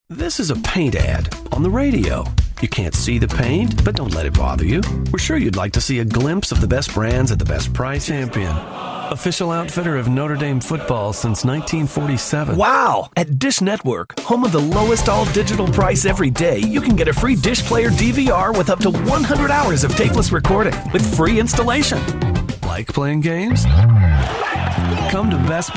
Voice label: Announcer; Commercial Announcer